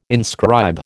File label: "inscribe", American